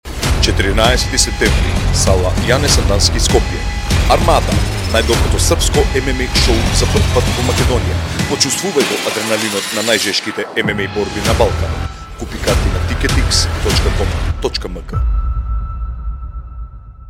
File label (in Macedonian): RADIO reklama MUSKI Glas; ARMADA 14 MMA; Skopje